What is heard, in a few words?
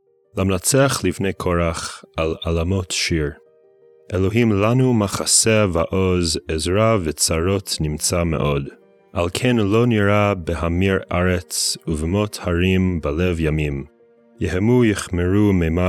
poetry and prayer psalm hebrew